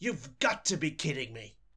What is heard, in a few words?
start, voices angry pursuit; DanisRace